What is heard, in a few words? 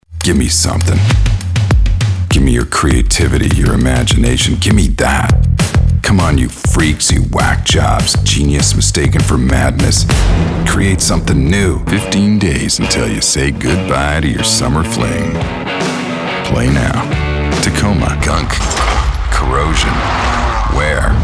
Commercial